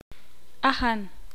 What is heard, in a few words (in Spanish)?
"pie", ixil